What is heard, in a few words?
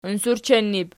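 "unsurchennu" plural, Archi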